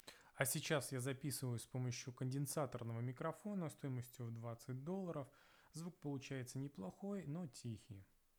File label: condensator mic pc